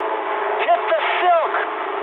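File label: English bailout mood med; War Thunder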